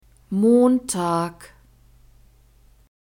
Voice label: "montag", german; weekdays new words